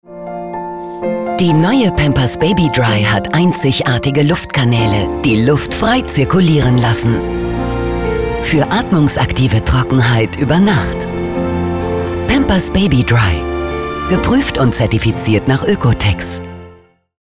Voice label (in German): Werbung; Pampers